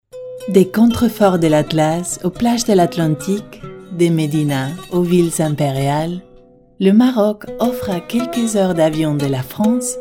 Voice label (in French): Francais narratif elegant pose; Narration